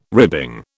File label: "ribbing", British